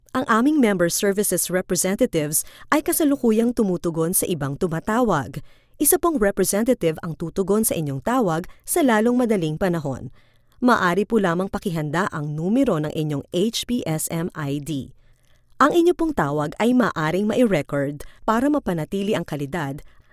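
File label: Tagalog IVR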